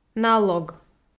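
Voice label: "налог", Komi, female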